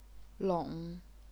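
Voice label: "long", third tone, chinese pinyin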